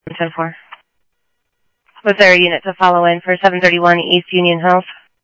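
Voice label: police department, scanner audio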